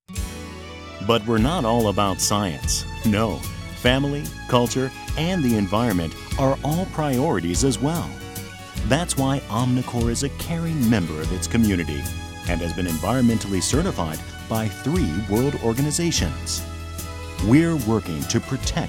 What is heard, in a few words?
Soft Friendly Voice